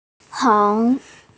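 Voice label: "heng2" Chinese, female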